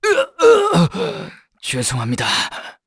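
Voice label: Dead, Korean; voices; heroes; Zafir; kings raid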